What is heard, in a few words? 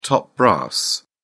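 "top brass", British English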